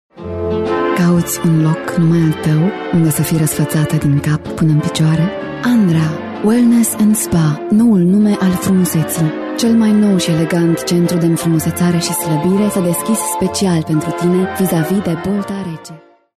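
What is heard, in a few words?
Romanian, female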